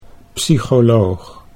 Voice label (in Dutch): "psycholoog", Dutch